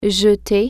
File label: "throwaway", French